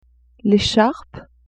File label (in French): "echarpe", French; vetements